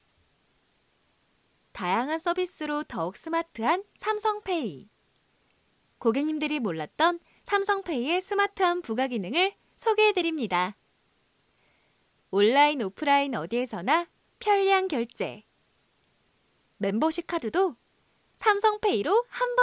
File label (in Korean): 인포그래픽 홍보; 삼성페이